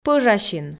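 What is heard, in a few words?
"пӧжасин" Komi, female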